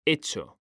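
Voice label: "hecho", Spanish, male; mekanta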